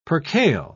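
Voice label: "percale", British English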